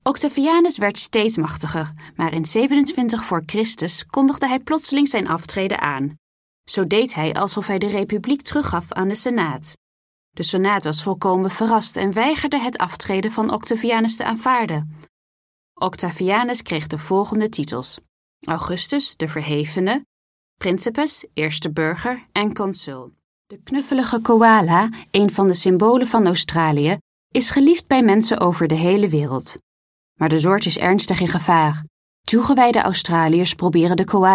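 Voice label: General, Dutch; voice demos; GoLocalise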